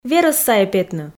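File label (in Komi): верӧс сайӧ петны, Komi, female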